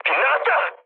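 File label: Korean bomb failure mood med; War Thunder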